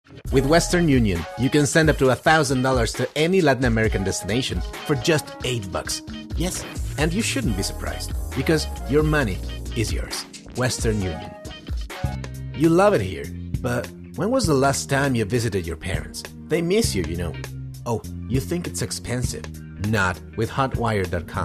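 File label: Spanish, English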